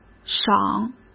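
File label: "赏", Mandarin Chinese; chinese-flashcards; hsk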